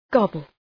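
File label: "gobble", English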